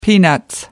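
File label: "peanuts", British English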